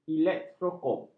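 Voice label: "elektroskop", Malay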